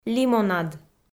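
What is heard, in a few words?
"лимонад", Komi, female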